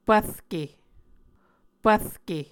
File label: "pvrke", Muscogee